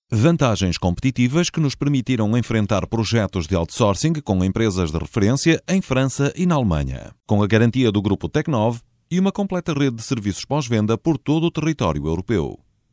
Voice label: portuguese m narration; voice demos